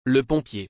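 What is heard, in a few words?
"Le pompier", French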